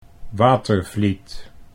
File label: "Watervliet", Dutch